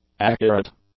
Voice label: "accurate", English; adjectives